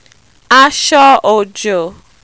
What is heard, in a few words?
"aso ojo", Yoruba